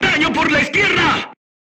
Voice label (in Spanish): voice message shot left, Spanish